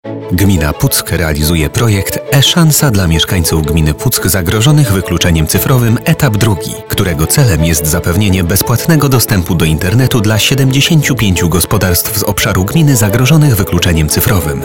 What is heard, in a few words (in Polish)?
spot radiowy